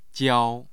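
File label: "jiao", Mandarin Chinese, tone 1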